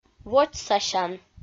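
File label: "водзсасян", Komi, female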